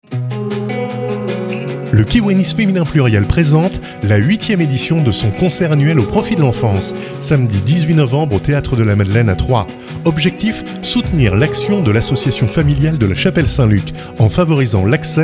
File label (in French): spot radio; 2017 concert kfp